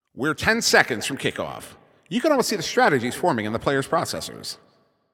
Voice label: 10 Seconds To Game Start, Announcer

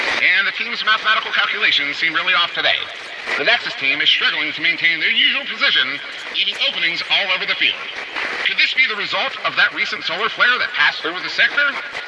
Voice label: Transmission Echoes

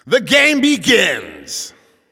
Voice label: Game Start, Announcer

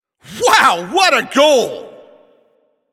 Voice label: Goal Scored, Announcer

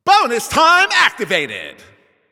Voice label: Announcer Overtime Starts

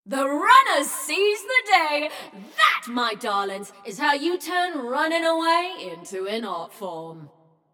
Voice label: Runners Win, Announcer; Flux; Scraprun

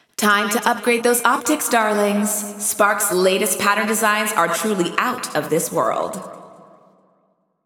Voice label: Announcements; Sparks Supplies; New Eyes; GAIA

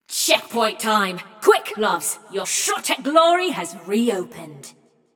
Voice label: Checkpoint Opened, Announcer; Flux; Scraprun

